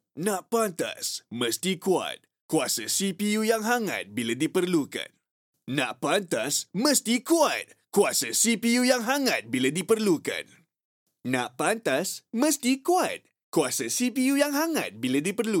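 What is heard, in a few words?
Bahasa Malaysia Deep voice movie trailer style high energy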